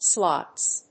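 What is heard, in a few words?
"slots", English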